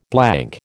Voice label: "blank", American

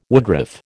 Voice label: "woodruff", American

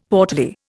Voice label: "portly", Indian; Dictionary

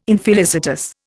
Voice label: "infelicitous", Indian

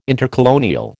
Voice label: "intercolonial", American

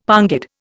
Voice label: "pongid", Indian; Dictionary

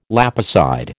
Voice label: "lapicide", American

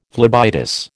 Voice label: "phlebitis", American

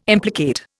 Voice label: "implicate", Indian

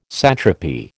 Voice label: "satrapy", American

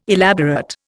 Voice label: "elaborate", Indian

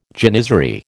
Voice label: "janizary", American

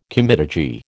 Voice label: "comitadji", American

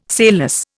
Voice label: "sailless", Indian

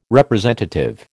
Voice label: "representative", American

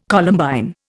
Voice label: "Columbine", Indian

